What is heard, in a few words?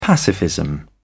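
"pacifism", British English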